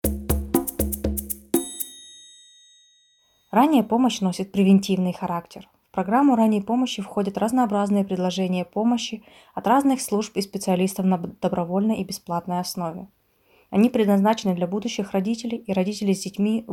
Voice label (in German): russisch allgemein